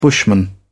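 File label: "bushman", British English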